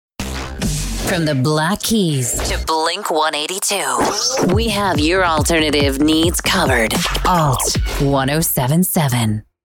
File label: ALT ROCK, voiceover